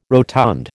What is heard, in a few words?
"rotund", American